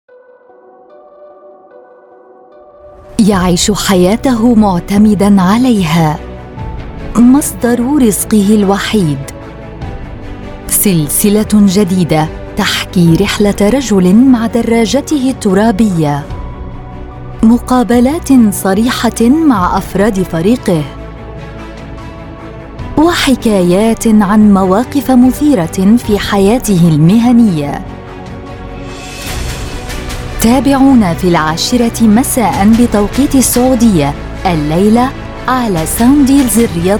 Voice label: arabic, arabic female voices